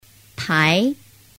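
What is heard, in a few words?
"pai", Chinese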